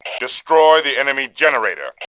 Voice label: generator, Male; voice; Tribes2